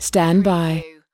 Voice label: US female stand by